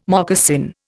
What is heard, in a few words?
"moccasin", Indian